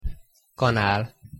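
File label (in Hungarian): "kanal" Hungarian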